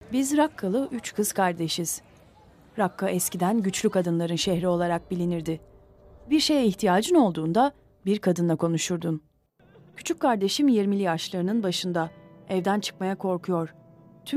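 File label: Turkish, Narrative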